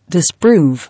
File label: "Disprove", English